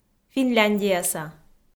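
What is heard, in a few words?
"финляндияса", Komi, female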